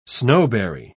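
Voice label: "snowberry", British English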